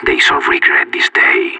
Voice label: radio lock n load; Counter Strike; CS:GO; separatist

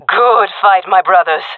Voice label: round won, fem; jungle; Counter Strike Model Pack; CS:GO

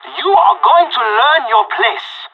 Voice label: on a roll brag, pirate; Counter Strike Model Pack; CS:GO

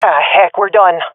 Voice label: bomb pre explosion, swat fem; vo; Counter Strike Model Pack; CS:GO

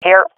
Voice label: in position, swat fem; vo; agents; Source Model Packs; Counter Strike Model Pack; CS2

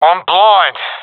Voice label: blinded, professional epic; vo; Source Model Packs; Counter Strike Model Pack; CS2

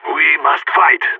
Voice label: pep talk; Counter Strike; CS:GO; phoenix

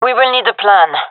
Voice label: request plan, gendarmerie fem; vo

